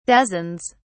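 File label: "dozens", British English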